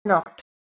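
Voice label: "nokwt", Proto-Indo-European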